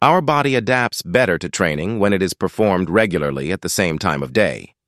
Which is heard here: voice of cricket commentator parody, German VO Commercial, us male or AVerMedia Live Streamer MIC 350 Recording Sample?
us male